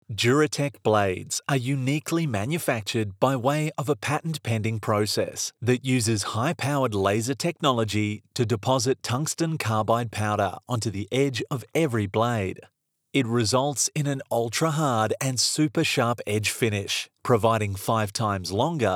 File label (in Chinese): 外籍男 科技公司产品叙述 大气